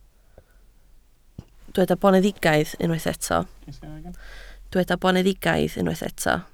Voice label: "boneddigaidd", Welsh

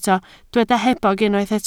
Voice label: "hebog", Welsh

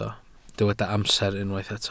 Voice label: "amser", Welsh

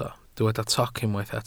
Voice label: "toc", Welsh

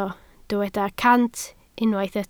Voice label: "cant", Welsh

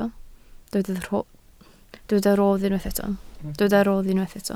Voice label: "rodd", Welsh

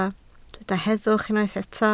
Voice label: "heddwch", Welsh

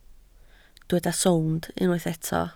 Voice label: "sownd" Welsh

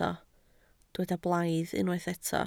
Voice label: "blaidd", Welsh

